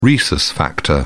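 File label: "rhesus factor", British English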